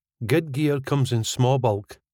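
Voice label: Guid gear comes in sma bulk, Scottish English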